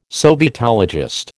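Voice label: "sovietologist", American English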